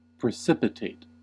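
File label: "precipitate", US English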